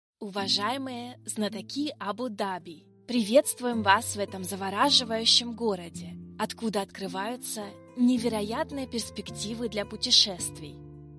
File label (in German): mit musik; abu dhabi